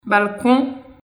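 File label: "balcon", Haitian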